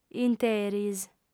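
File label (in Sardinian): "interis", Sardinian, female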